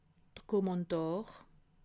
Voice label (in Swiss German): "dokumentar", alsace